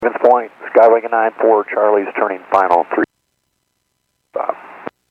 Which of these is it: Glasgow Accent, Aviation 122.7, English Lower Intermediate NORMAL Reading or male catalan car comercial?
Aviation 122.7